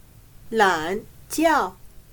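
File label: "懒觉", Chinese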